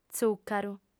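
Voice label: "tzùcaru", female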